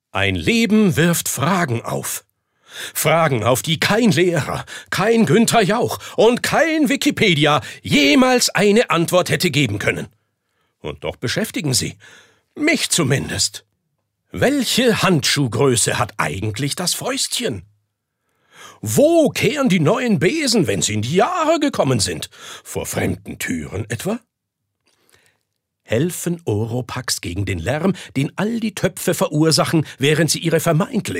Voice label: Schauspiel Kraftvoll

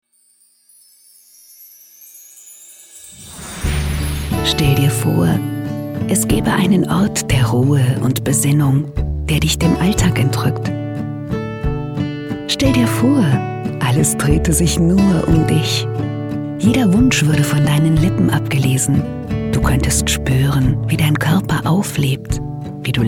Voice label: Werbung Sanft

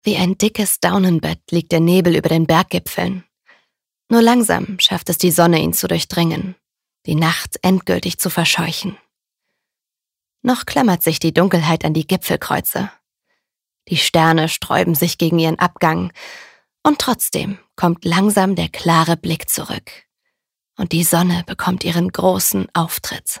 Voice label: Schauspiel Sanft

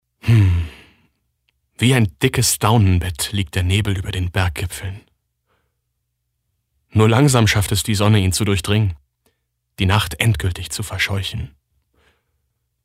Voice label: Schauspiel Freundlich

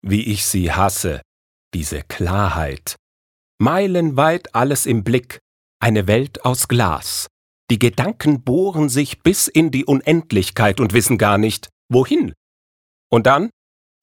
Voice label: Schauspiel Dynamisch

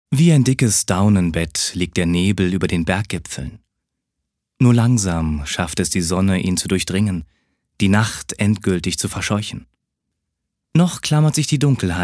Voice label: Schauspiel Variabel

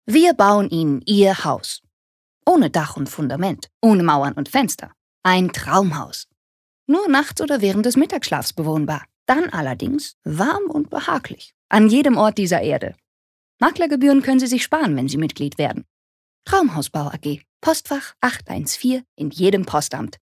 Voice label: Werbung Freundlich

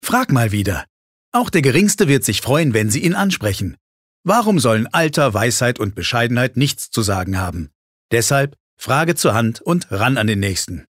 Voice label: Werbung Dynamisch

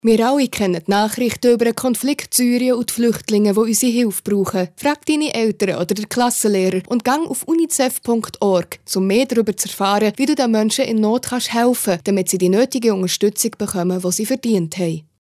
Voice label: CH-D K Fremdtake Schwiitzerduetsch

